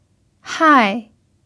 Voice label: "hai4", pinyin